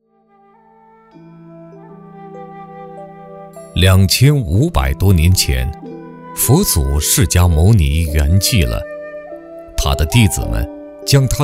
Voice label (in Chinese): 男 宗教 大气 舒缓; 阿育王寺